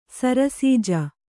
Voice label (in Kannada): "ಸರಸಿಜ", Kannada